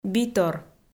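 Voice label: "битор", Komi-Zyrian, female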